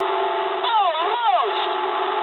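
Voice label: bomb failure mood medium, speech English; War Thunder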